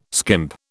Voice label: "skimp", British